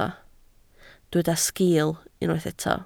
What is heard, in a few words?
"sgiil", Welsh